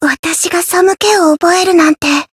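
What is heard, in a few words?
Voice encounter fear, Japanese; yukino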